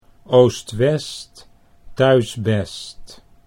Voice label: oost west thuis best, Dutch

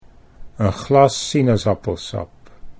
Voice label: "een glas sinaasappelsap", Dutch

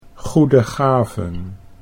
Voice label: "goede gaven", Dutch

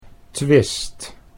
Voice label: "twist", Dutch

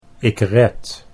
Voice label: "ik red", Dutch

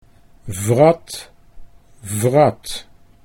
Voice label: wrat vrat, Dutch

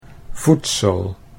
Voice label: "voedsel", Dutch

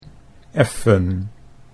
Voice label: "effen", Dutch

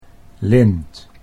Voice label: "lint", Dutch

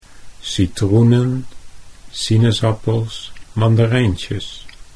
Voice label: "citroenen", Dutch

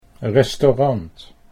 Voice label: "restaurant", Dutch

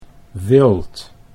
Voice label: "wild", Dutch

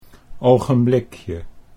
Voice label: "ogenblikje", Dutch

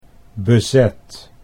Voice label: "bezet", Dutch

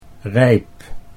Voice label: "rijp", Dutch

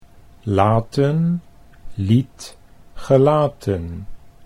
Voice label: laten liet gelaten, Dutch

